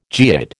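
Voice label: "geoid", American English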